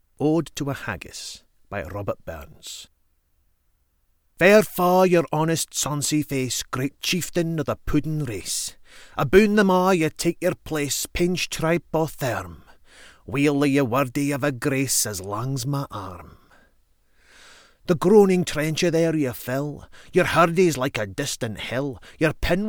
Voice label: poetry scottish dialect; Poetry (Scots Accent); ode to a haggis